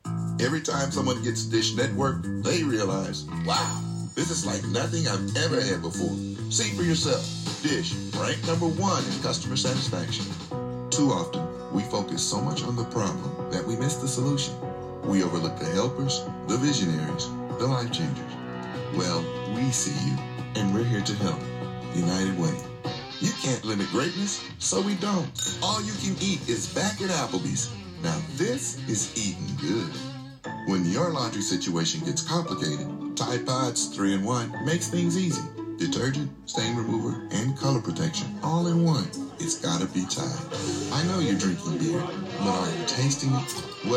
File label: commercial